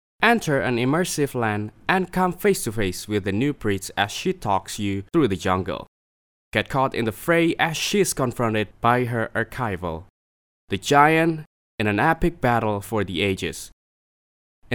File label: CASUAL ENGLISH AMERICAN ACCENT